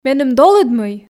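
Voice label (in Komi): меным долыд мый, Komi, female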